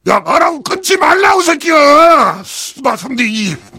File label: heavy cheers